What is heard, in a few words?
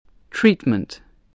"treatment", voice